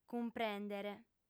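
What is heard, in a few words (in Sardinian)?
"cumprèndere", female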